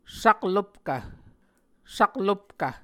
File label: "saklopkv", Muscogee